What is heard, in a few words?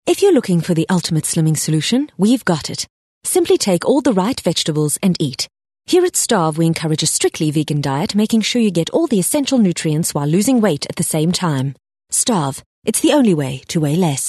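English Softsell South African Neutral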